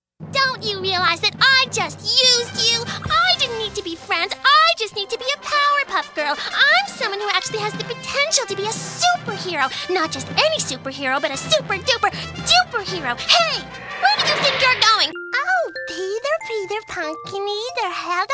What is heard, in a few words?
English US, F, Character; american